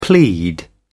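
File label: "plead", British English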